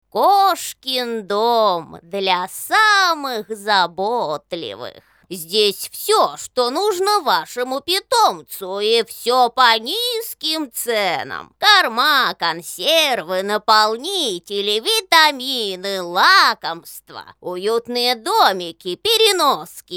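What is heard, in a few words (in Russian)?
бабка сказочница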